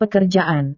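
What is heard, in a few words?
"pekerjaan", Indonesian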